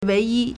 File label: "wei2yi1", Chinese